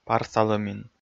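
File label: "парсалӧмин", Komi, male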